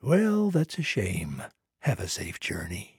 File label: trader male like quest declined